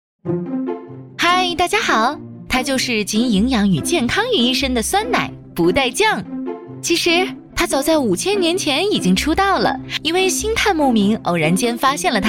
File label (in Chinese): 女 动画 科普 活跃 轻快 高亢